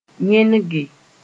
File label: "yẽnege", moore